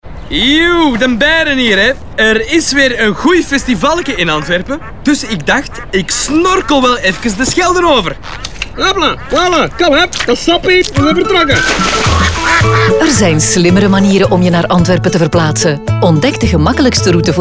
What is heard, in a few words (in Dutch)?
Radio; StadAntwerpen; Slim naar VL; Muziek; Snorkel